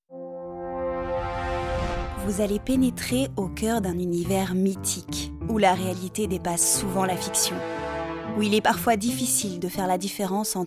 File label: voice over urban legend